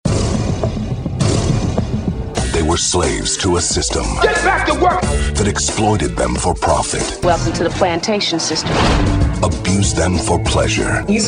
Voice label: Male Trailer

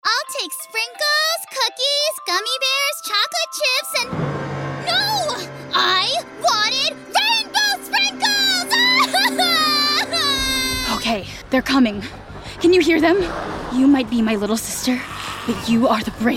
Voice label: Character

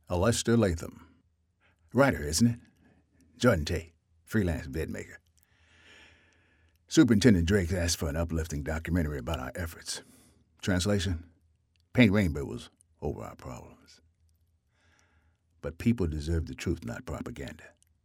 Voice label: Male Animation

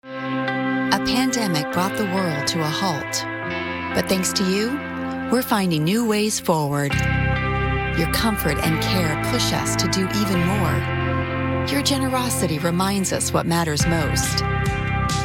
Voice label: Commercial

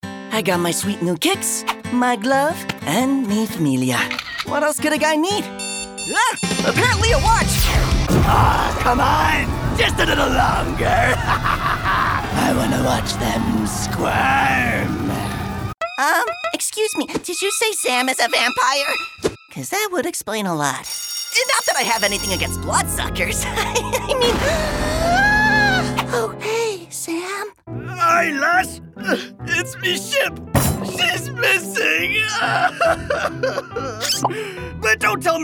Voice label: Animation

